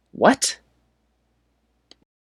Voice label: Male What Ver